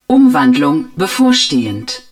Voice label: TRANSFORMATION IMMINENT, german